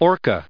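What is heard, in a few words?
"orca", US English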